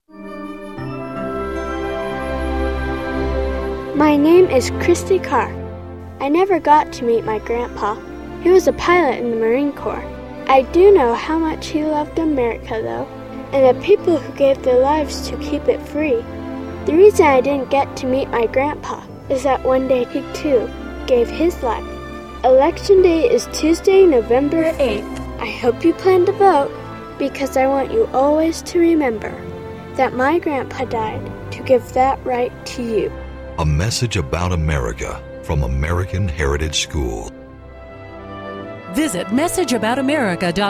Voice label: Radio Spot; KSL